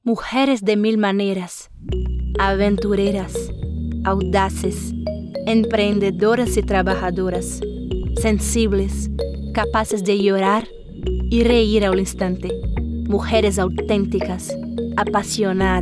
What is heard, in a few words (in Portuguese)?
Espanhol - América Latina Neutro, Voz Feminina - Espanhol, Voz Jovem